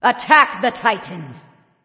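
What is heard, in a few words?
attack male; smite; nike; voicepack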